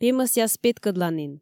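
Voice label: "пемӧсъяс петкӧдланін", Komi, female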